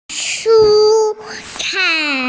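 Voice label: "shu1cai4", Mandarin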